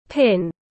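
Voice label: "Pin", English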